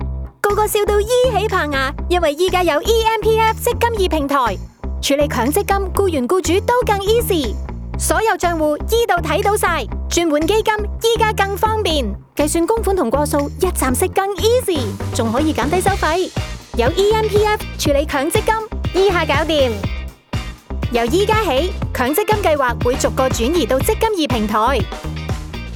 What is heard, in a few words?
radio Cantonese, 电台宣传; 「积金易」平台现已推出; 广东话版